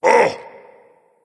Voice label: surprise, voice; fenrir

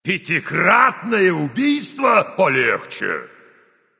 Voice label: kill, russian; caster

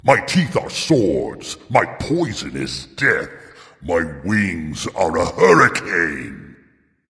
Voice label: taunt dragon; fafnir

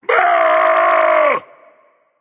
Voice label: battlecry, voice; Smite; ymir skin14

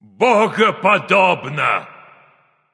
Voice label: kill streak, russian; caster

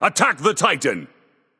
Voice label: vox attack; smite; hades

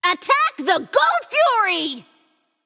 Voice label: vox attack; smite; izanami

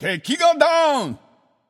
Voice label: notify slain enemy, japanese; caster

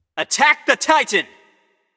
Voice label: vox attack m; smite

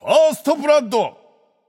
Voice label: alert notify kill streak firstblood, japanese; caster

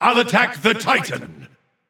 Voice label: vox self attack; smite; ra; voicepack

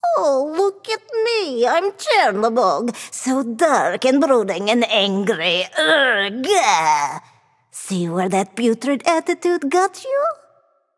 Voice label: taunt directed; chernobog; Smite; babayaga

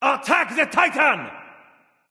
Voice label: vox attack m; smite; khepri

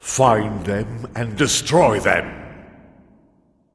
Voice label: vox emote; smite; ra; voicepack

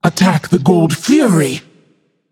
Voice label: vox attack; smite; arachne

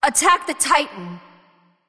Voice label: vox attack; smite; nox; voicepack

